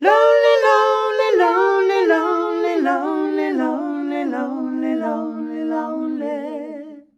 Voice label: LONELY, MALES; Best Service; Hyper Dance

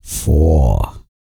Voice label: COUNT, Vocal Planet, R&B & Dance, COUNTING; ILIO

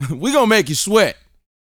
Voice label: BOAST MF; Vocal Planet; R&B Dance

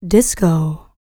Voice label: L LICKS, FEM SPOKEN, R&B Dance; Spectrasonics Vocal Planet

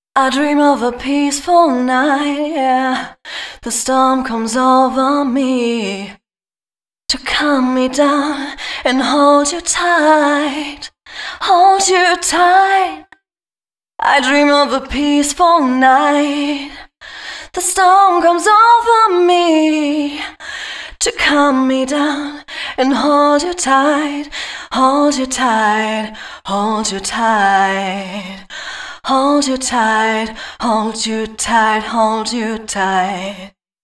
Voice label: female 138, hooklines, Dance Ultra Voice; hooklines 136-140 BPM; Mutekki Media; Dance Ultra Voice VOL-1

